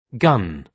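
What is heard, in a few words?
"gun", British English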